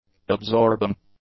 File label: "absorbing", english; adjectives